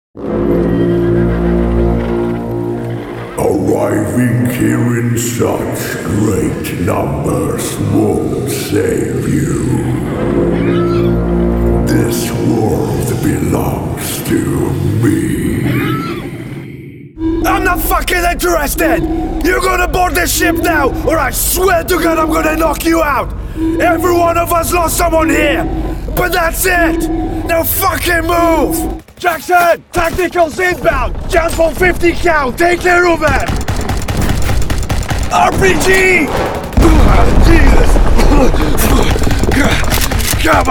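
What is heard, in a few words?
Polish, Accented English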